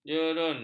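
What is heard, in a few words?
"jerung", Malay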